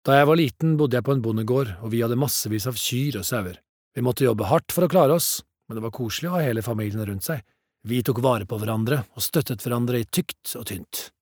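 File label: no dub man, Norwegian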